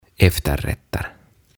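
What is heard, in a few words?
"Efterrätter" Swedish; Jälkiruoat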